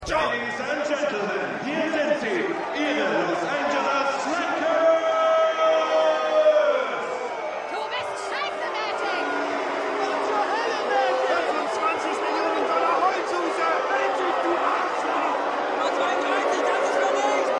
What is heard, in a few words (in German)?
Stadionsprecher; Winning Time